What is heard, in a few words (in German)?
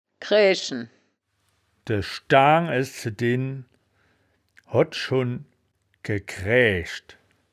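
"kraeaechen", wittlicher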